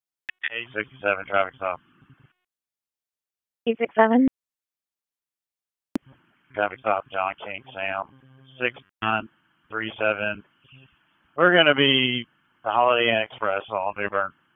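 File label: Raleigh Police Southeast District Dispatch, p25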